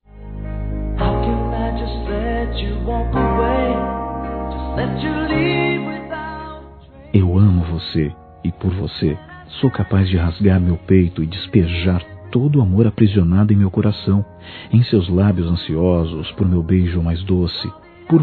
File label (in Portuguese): POR VOCE, Sensuais, masc; Telemensagens